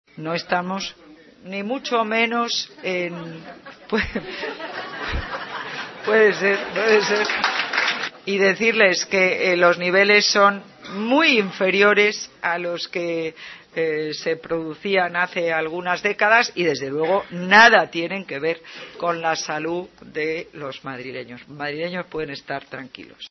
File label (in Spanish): noticias